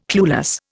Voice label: "clueless", Indian